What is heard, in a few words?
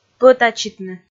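"пӧтачитны", Komi, female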